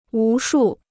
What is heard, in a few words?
"无数", Chinese